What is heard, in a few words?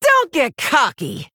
Female Orc Emote GREETINGS